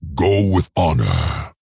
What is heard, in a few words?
Go With Honour, Maghar Orc Military Guard, M